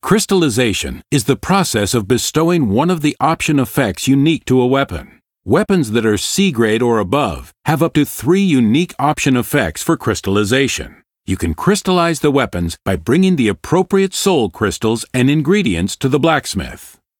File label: tutorial voice, pl; lineage2